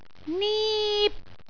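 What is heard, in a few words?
"nip1", Cantonese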